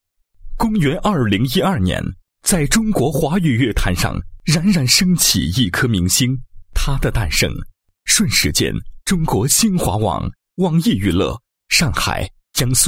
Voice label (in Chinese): 男 人物; 中国乐坛音乐唱作人